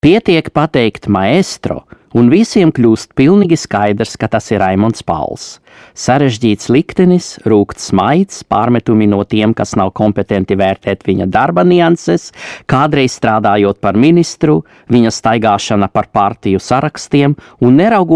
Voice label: Lettland M

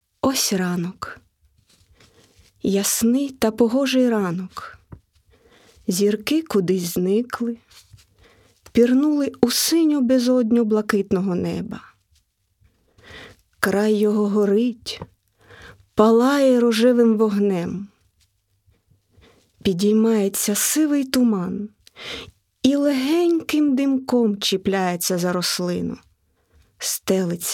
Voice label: Ukrain W6B, voiceactors

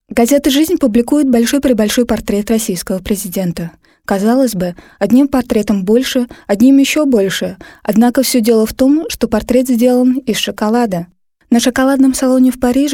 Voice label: Russland W

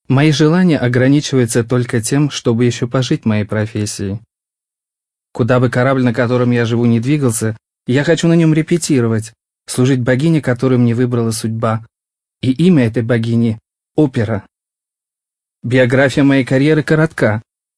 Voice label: Russland M

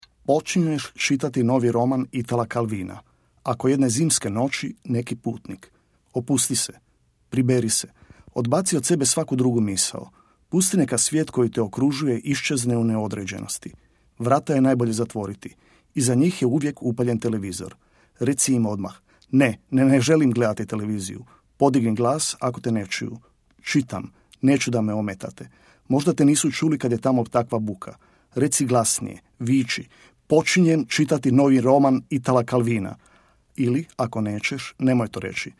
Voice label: Kroatien M

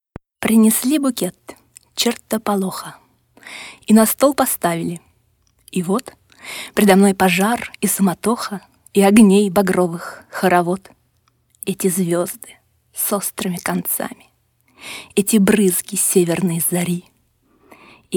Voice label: Russland W

